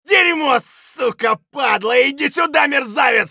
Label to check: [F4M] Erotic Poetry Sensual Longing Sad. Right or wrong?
Wrong